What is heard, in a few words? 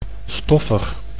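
"stoffig", Dutch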